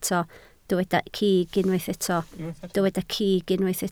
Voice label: "cig", Welsh